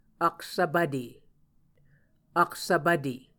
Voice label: "aksvpvte", muscogee